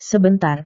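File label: "sebentar", Indonesian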